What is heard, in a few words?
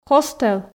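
"хостел", Komi, female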